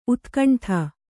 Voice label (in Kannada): "ಊದುಗಂಡಿ", kannada